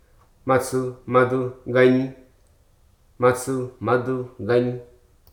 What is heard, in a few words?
"imperative", Hruso